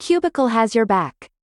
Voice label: Delivery Drone VO Common; Going Under

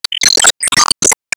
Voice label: Emo Warcry

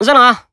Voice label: Emo Greet

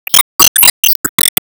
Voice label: Emo Warcry

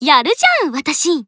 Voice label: Emo Glad

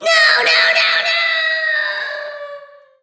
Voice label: Troll VO Fall Quip; Skylanders Cloud Patrol; Arcade, iOS, Mobile

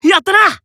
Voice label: Emo Praise

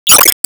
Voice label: Emo Greet

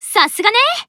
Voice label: Emo Praise

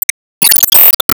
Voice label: Emo Praise

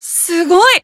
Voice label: Emo Surprise

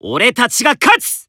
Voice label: Emo Warcry

